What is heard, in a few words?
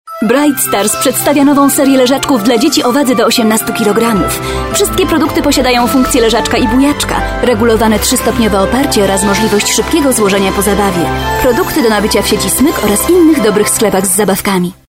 F COMMERCIAL